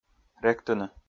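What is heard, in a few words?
"ректыны", Komi, male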